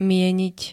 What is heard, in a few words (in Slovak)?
"mieniť", slovenské